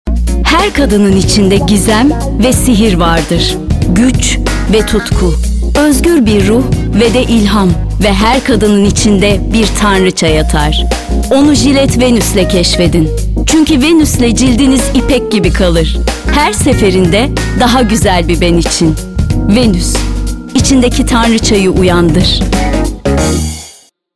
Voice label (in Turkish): reklam; gillette